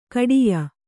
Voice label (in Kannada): "ಖೇಡ್ಯ", Kannada